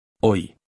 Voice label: Phoneme Male; Commonscript; Lynguistykon